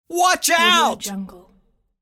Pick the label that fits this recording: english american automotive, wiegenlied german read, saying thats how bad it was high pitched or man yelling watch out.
man yelling watch out